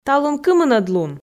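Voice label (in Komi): "талун кымынӧд лун", Komi, female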